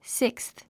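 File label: "sixth", English; síksθ スィックスス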